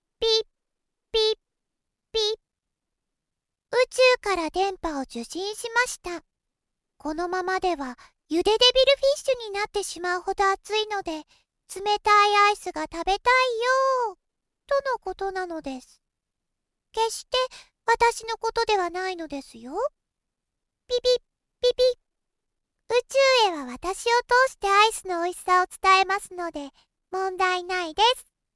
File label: female